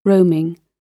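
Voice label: "roaming", British English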